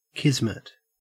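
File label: "kismet", Australian English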